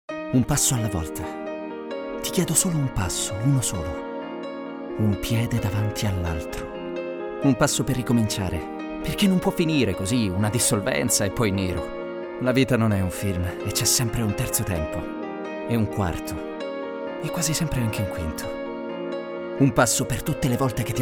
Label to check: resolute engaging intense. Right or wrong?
Right